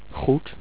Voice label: good, dutch